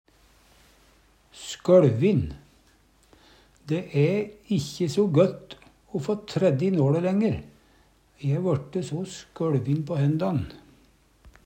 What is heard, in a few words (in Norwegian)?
"skøLvin", Numedalsmål